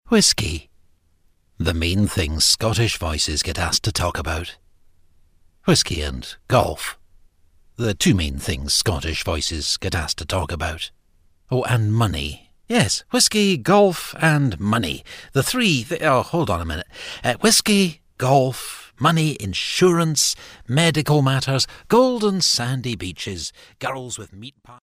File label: signature scottish